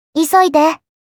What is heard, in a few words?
"Hurry", Kawaii Voice, Commands